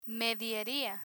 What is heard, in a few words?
"medieria", Spanish; learn spanish